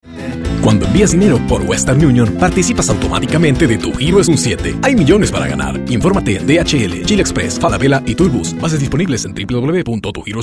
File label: NEUTRO